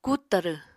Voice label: "kut tarw", Apatani